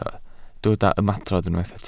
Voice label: "ymadrodd", Welsh